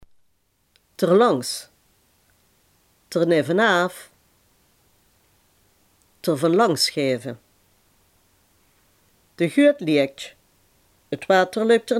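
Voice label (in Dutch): "erlangs" Limburgish dialect word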